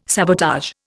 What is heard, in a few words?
"sabotage", Indian